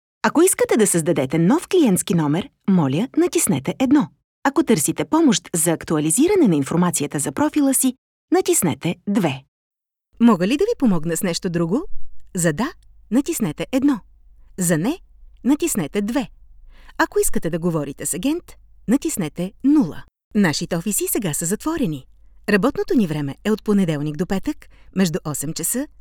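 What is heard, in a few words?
Bulgarian, female, IVR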